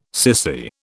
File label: "sissy", British